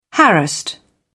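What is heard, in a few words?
"harassed", British English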